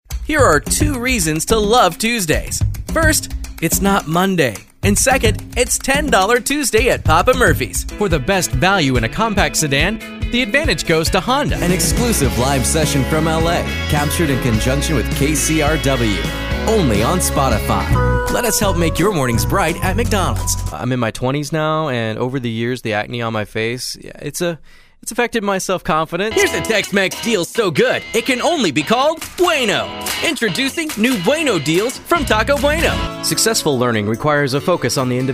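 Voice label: COMMERCIAL Millennial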